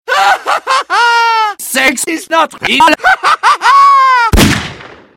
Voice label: crazy doktor voice attack

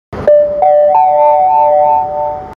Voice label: metro announcement

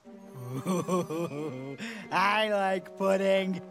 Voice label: laughs i like pudding

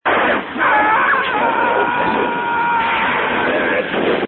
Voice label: monk very angry

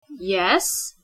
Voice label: woman

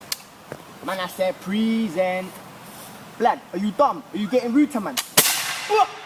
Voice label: british

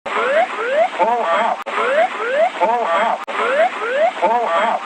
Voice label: lockheed l 1011 tristar gpws pull up

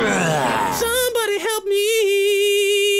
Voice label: somebody help meeeeeeeeeeeee

